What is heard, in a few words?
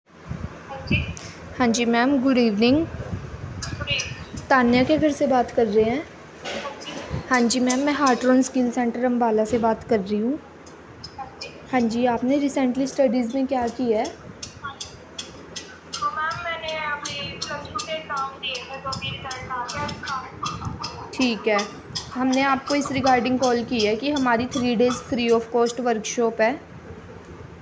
call recording